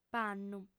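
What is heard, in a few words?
"pannu", female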